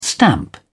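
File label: "stamp", British English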